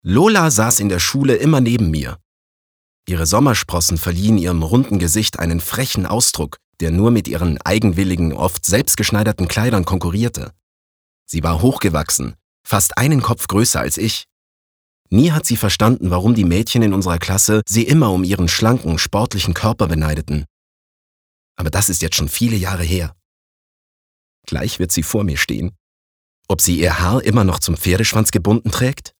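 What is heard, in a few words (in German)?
Schauspiel Freundlich; Schultage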